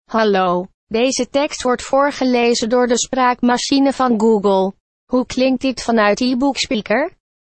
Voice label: google speech